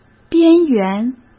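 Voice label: "边缘", Mandarin Chinese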